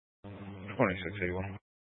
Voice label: Sheriff Dispatch; Mesa County